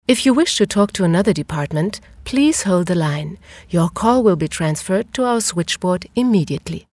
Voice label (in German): englisch, Sprecherin, telefonansagen